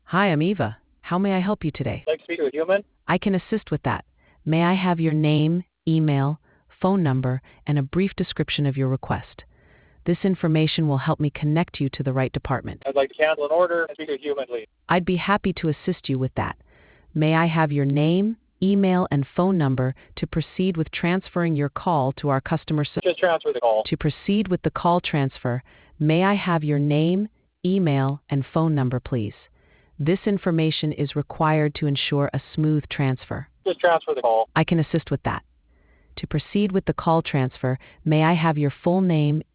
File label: conversation